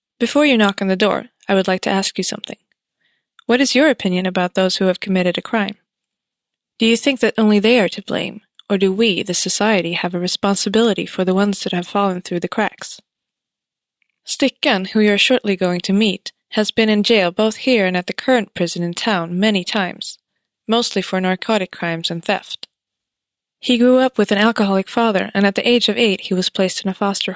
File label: cell, audioguider; eng